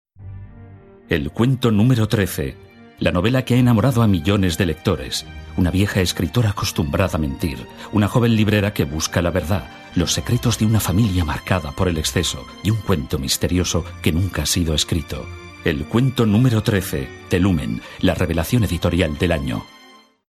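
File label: male, Spanish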